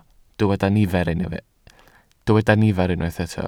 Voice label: "nifer", Welsh